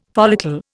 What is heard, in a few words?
"follicle", Indian